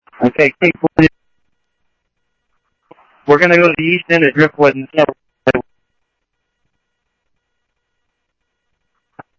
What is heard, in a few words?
Interop Mutual Aid, scanner; G1 Interop MutualAid-InterS; ThompsonPeakHigh